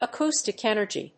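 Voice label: "acoustic energy", English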